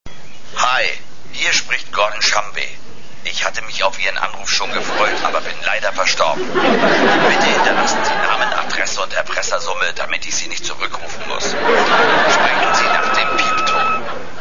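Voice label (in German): Ansagen für Anrufbeantworter; alf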